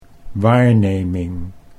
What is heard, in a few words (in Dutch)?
"waarneming", Dutch